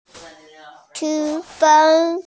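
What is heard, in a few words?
"qu1fen1", Mandarin Chinese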